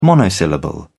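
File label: "monosyllable", British English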